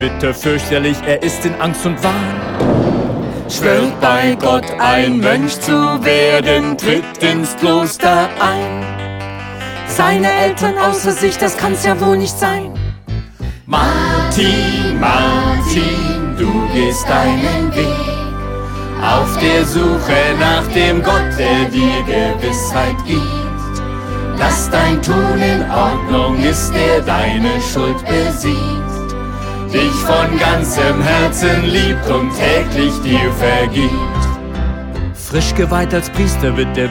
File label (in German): hoerspiel